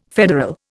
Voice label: "federal", Indian

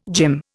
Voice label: "gym", Indian

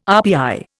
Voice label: "RPI", Indian

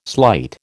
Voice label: "slight", American

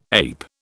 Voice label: "ape", British

